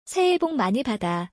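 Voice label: Korean, 새해복 많이 받아 (saehae’bok mani bada) - inf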